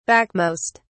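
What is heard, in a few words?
"backmost", British English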